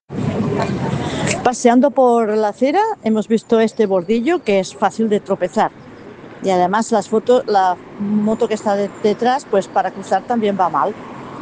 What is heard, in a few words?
Voice message